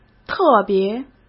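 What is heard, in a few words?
"特别", Mandarin Chinese; chinese-flashcards; hsk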